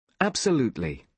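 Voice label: "absolutely", British English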